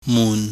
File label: "mun", Chinese